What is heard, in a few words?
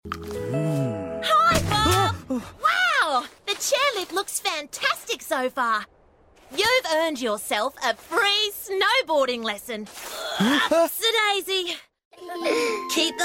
Australian Animation